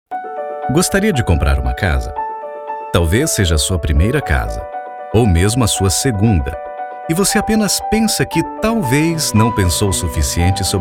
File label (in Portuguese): Voz Reflexiva; Comprar uma casa